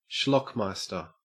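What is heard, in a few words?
"schlockmeister", Australian English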